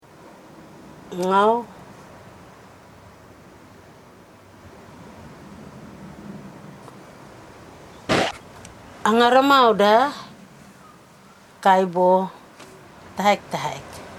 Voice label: I have galib nuts, but only a few (pauses) (recorder movement); matukar